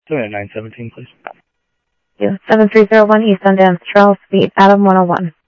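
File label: Sheriff East County, scanner audio; South Scottsdale; MCSO - EAST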